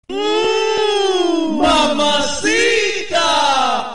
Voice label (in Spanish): mmmm mamacitaaaaa tono para whatsapp; SONIDOS WHATSAPP